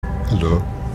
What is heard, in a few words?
hello african